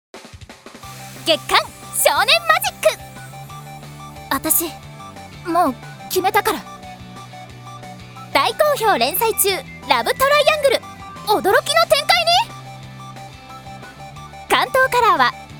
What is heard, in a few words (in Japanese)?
ナレーション